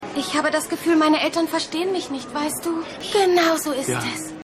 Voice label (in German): Frau